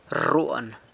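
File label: "rruan", San Lucas Quiaviní Zapotec; abandons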